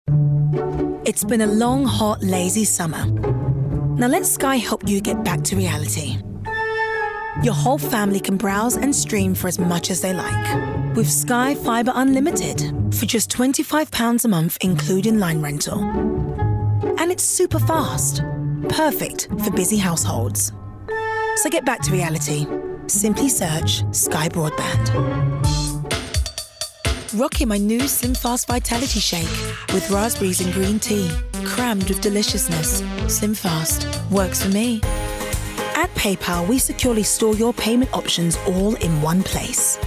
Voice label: Commercials

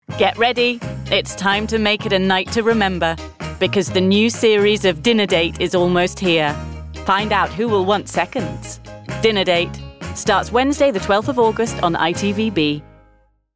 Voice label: Promo; Reassuring, engaging, bright and sophisticated.

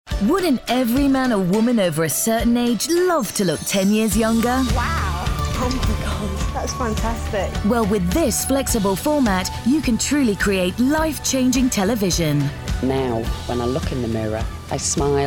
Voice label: Corporate

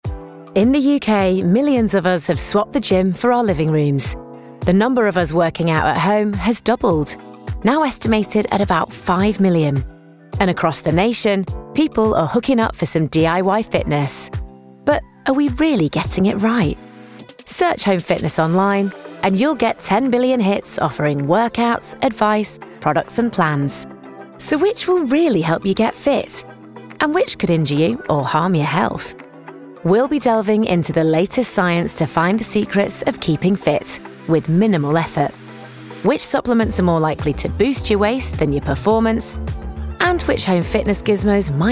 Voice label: Documentary, Fun, relatable and super confident

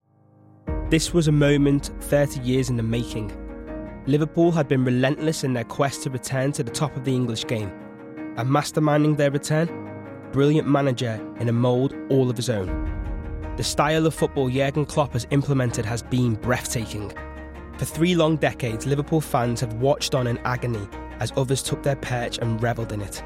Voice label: Documentary

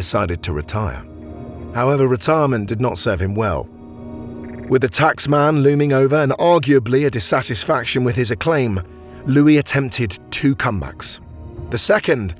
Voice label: Documentary Reel

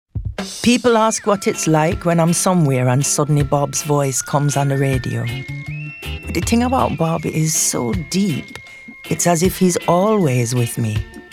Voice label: Jamaican

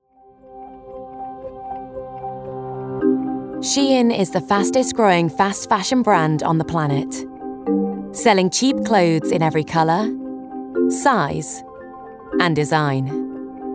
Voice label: Documentary

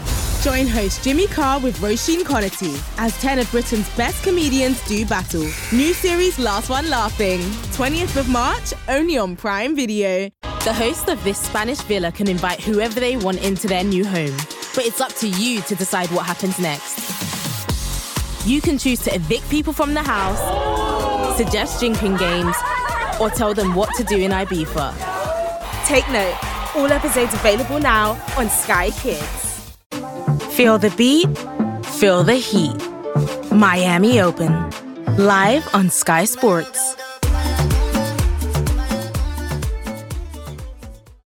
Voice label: Promo; Young, fresh and relevant.; actress

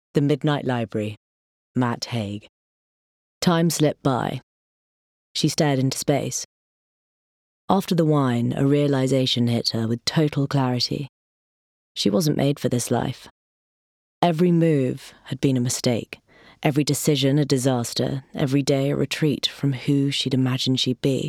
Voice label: Audiobook

